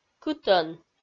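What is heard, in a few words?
"кытӧн", Komi-Zyrian, female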